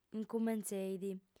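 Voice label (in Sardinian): "incumentzeit", female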